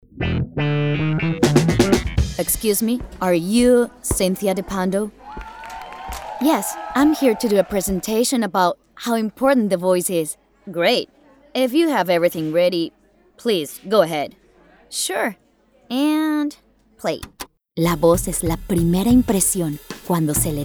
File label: English corporate